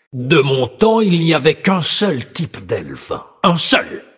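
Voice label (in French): Male Blague, voix françaises; Sacrenuit; Type delfe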